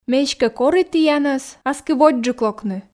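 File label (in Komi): ме эськӧ кори тіянӧс аски водзджык локны, Komi, female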